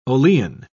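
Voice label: "olein", British English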